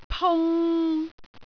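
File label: "pung3", Cantonese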